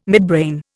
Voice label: "midbrain", Indian